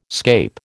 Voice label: "scape", American